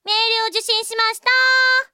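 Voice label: Japanese anime girl voice sounds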